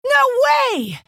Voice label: female orc wow